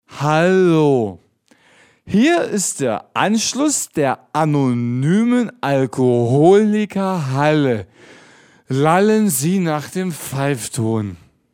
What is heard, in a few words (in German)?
anrufbeantworter; Anrufbeantworter-Sound: Anonyme Alkoholiker Halle